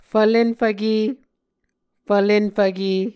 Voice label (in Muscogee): "fvlenfvke", Muscogee